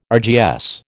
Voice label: "RGS", American